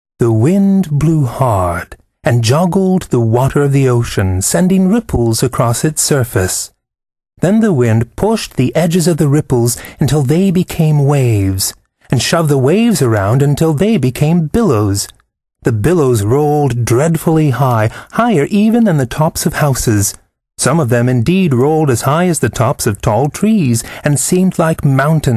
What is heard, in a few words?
voice over childrens fiction